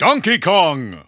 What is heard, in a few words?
English Announcer; Donkey Kong; SSBB